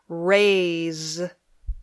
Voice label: "raise", English